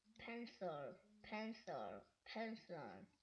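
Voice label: "pencil", spoken word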